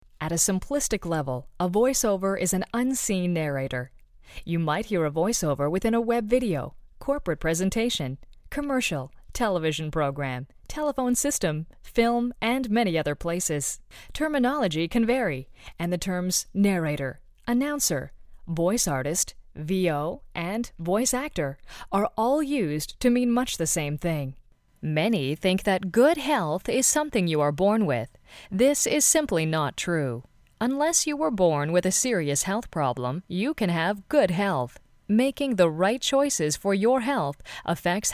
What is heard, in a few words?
narration